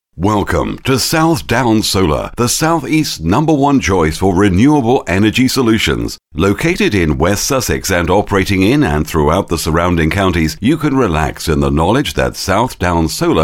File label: soft sell, voice